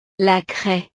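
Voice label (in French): "la craie", French; utiles escolares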